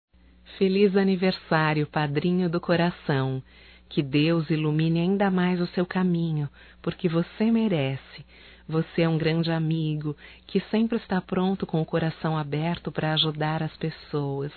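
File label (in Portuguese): female, Telemensagens Aniversarios; ANIV PADRINHO(F)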